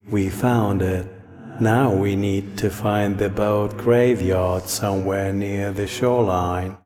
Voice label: Voice Monolog